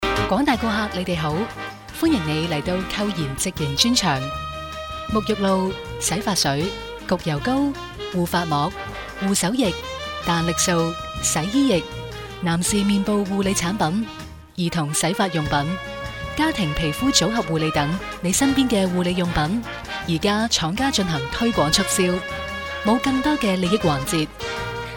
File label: Cantonese F Female